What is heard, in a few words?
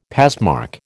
"passmark", American